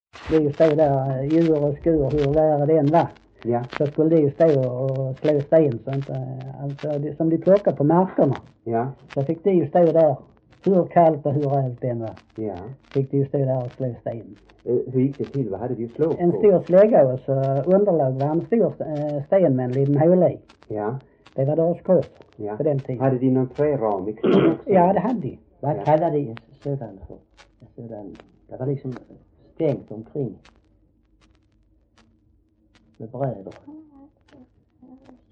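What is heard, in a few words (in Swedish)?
Äspö Skåne, dialekter; Folkminnen; Svenska sagor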